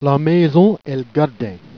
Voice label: "la maison et l'gardin", Jèrriais